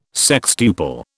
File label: "sextuple", British